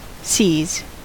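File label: "seas" English, US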